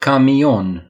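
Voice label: "truck", Romanian; gcompris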